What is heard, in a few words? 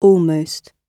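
"almost", British English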